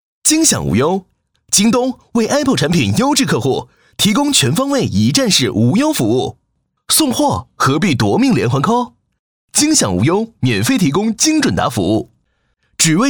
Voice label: 男 轻松诙谐; 京东京享无忧

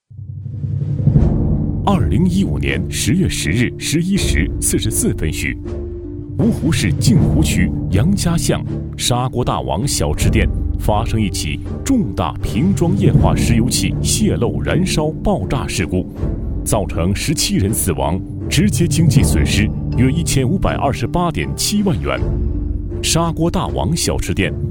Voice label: 男 严肃正式; 燃烧爆炸事故

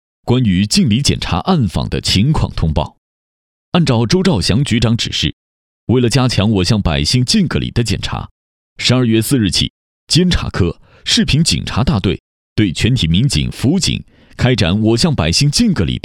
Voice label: 男66 新闻专题—干练干脆风格

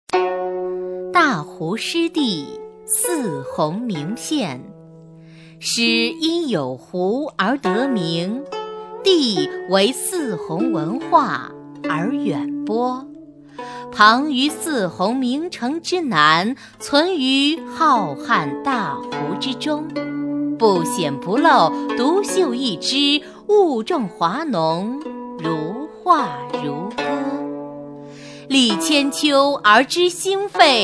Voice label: 女 仿古文（舒缓古典）

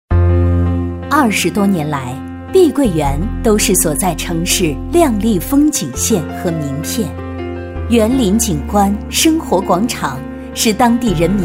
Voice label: 女 碧桂园（知性大气）

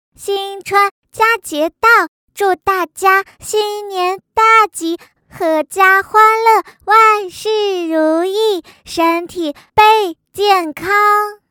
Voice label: 女 角色 女童

